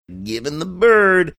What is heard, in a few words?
saying give em the bird sing songy